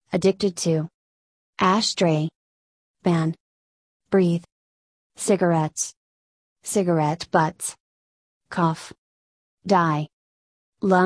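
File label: American; smoking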